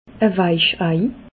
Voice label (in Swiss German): "weich ei", alsace